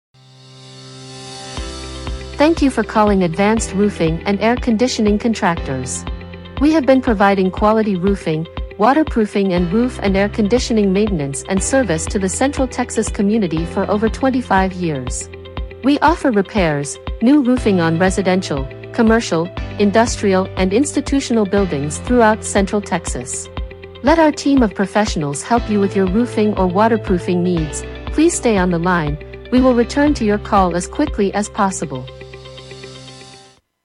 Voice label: English female